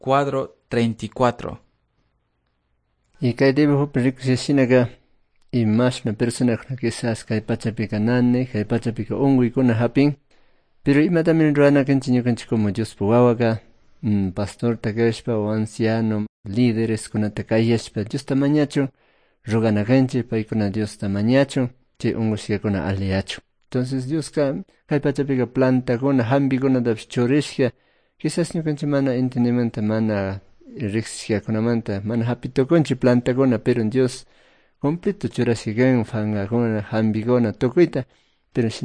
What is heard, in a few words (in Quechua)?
good news quichua cayambe